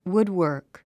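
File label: "woodwork", English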